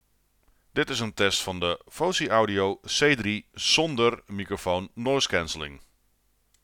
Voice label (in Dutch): zonder NC; Fosi Audio C3